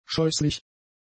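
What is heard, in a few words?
"scheußlich", German; adjectives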